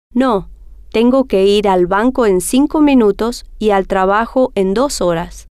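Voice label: no Tengo Que Ir Banco Cinco Minutos Trabajo Dos Horas, Spanish, female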